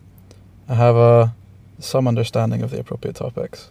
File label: interviewee male